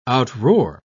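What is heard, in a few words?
"outroar", British English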